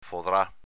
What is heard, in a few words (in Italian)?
"fodrà", Veneto; foderato